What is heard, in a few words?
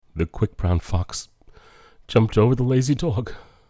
sad fox, emotions